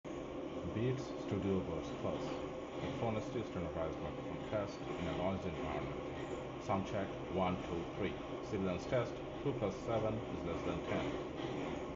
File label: Noisy; beats studio buds plus